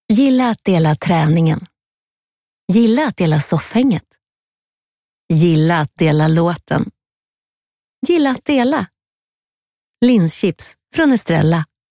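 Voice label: Swedish female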